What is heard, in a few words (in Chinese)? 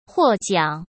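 "获奖", Mandarin Chinese; hsk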